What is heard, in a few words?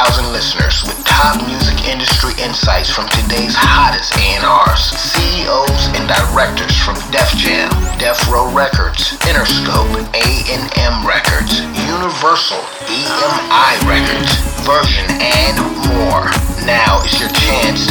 radio drop